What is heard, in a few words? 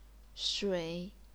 "shui3", chinese pinyin